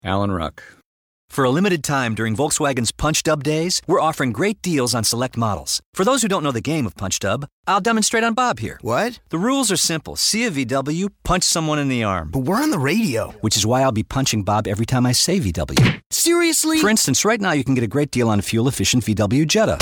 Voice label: commercial; Innovative LA